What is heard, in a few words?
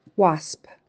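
"wasp", English; useful words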